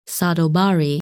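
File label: "Sadal Bari", English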